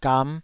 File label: "gam5", Taiwanese